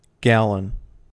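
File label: "gallon", American English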